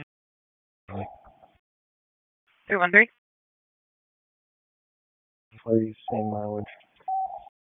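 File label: Raleigh Police Northeast District Dispatch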